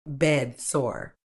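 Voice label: "bedsore", British English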